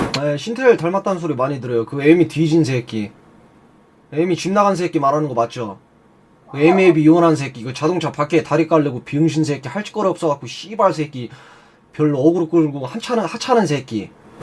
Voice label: scout jeers

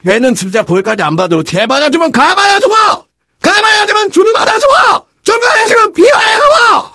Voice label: engineer battlecry

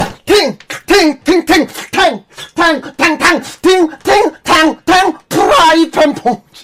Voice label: scout battle cry

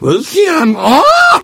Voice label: engineer cheers

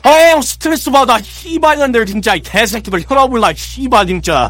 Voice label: scout jeers

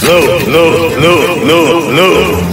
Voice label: heavy no

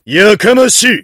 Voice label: heavy negative vocalization

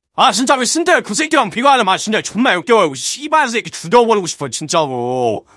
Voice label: jeers; scout

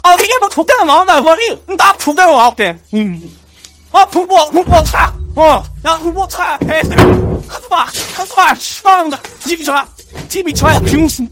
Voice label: scout jeers

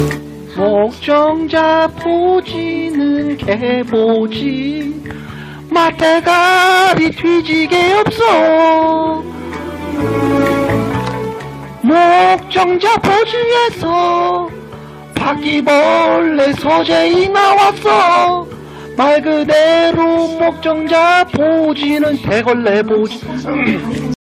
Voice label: scout cheers

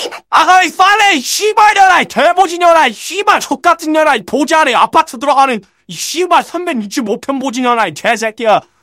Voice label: scout battle cry

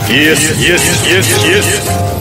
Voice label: heavy yes

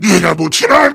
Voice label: heavy positive vocalization

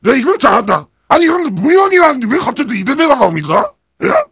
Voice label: heavy battlecry

